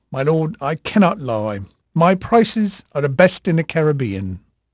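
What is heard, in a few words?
English male, voice; Pirates Of The Caribbean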